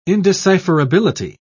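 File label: "indecipherability", British English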